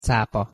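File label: "capa" pronunciation Hungarian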